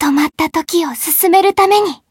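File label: Voice Japanese; mayu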